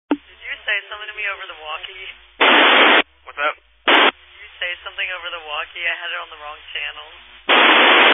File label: Family Radio Service (FRS)